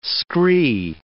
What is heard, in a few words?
"scree", English